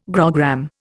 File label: "grogram", Indian